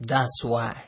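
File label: "das why", Nigerian Pidgin, male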